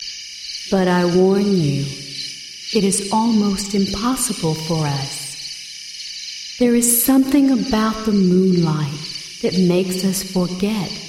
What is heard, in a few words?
werewolf female